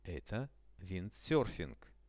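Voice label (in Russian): "это виндсерфинг", Russian, male; sport; RUSSIAN VISUAL DICTIONARY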